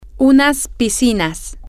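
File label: some pools, Spanish, female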